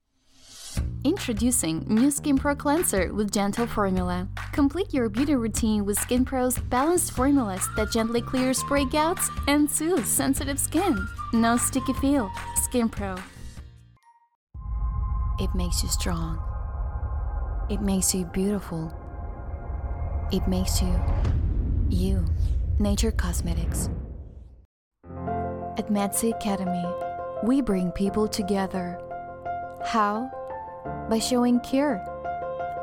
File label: english commercial; Russisch Armenisch; Usbekistan